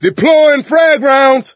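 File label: explosive ammo, male; Left 4 Dead 2; coach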